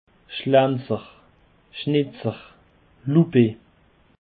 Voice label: "schlenzer", Alsace